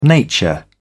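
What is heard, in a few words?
"nature", British English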